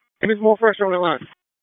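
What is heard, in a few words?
FIRE Operations, p25; FIRE OPS 3; wake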